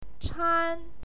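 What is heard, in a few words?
"chan", Mandarin Chinese, first tone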